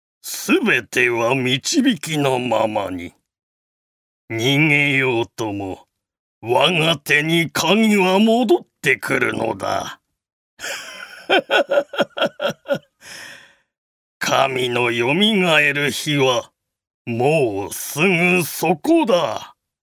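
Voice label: seikaku male warumono choiwaru; おじいさん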